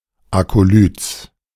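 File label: "Akolyths", German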